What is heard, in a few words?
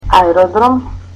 "airport", Macedonian